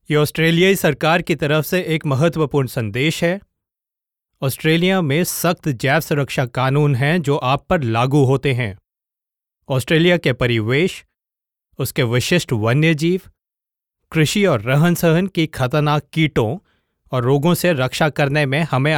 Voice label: incoming passenger announcement hindi; Passenger announcement- Hindi 2021; The Department of Agriculture, Fisheries and Forestry; aircraft